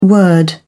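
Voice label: "word", British English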